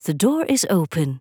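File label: The door is open, English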